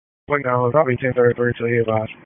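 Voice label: Dispatch, p25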